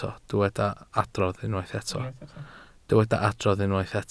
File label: "adrodd", Welsh